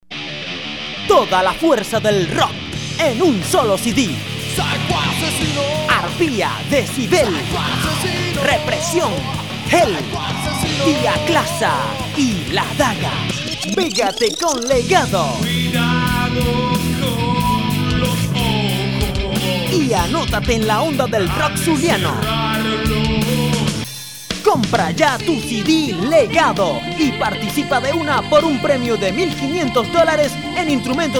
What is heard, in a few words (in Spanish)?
promo, voz de locutor; Promo CD El Legado; Locución Juvenil